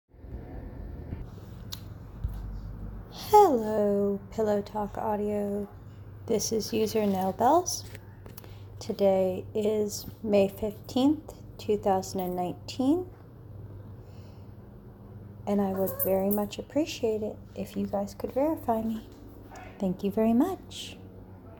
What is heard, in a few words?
Pillow talk audio